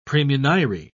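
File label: "praemunire", English, British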